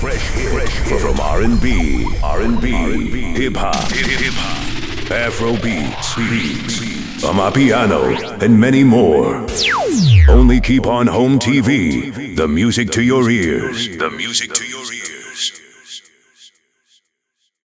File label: commercial drops